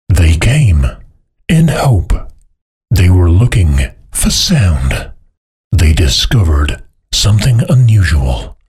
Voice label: english british movie trailers

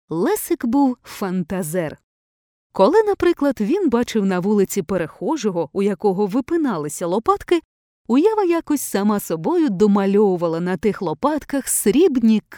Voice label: ukrainian audiobooks

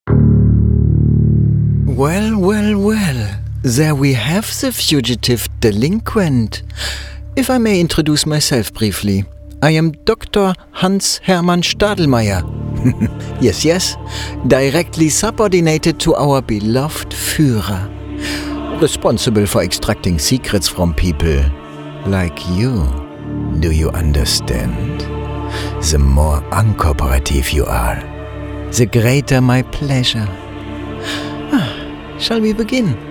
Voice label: english video games; professioneller zweisprachiger Sprecher

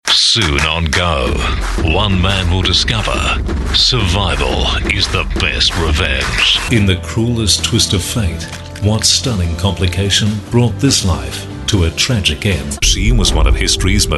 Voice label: english australian promos

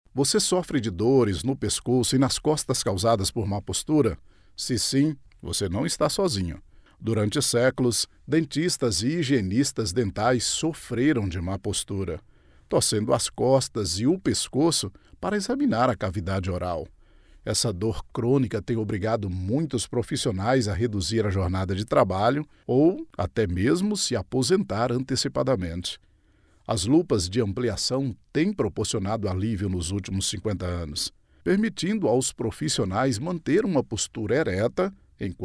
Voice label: portuguese brazilian medical narration

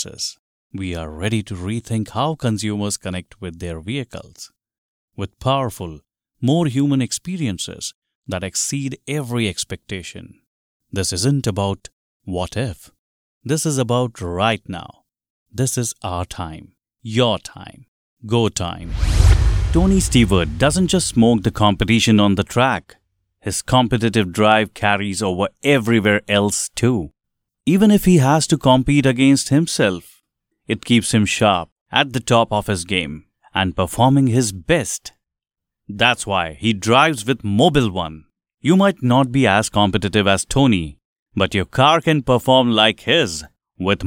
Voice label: english indian automotive

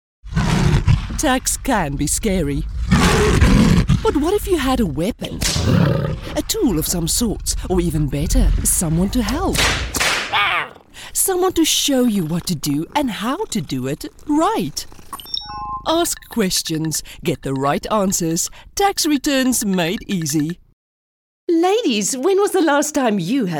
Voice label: english commercial

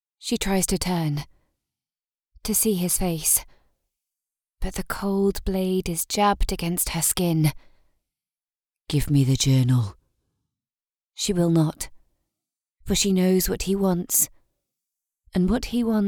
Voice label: english british audiobooks; voice actors

